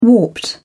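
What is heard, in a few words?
"warped", English, British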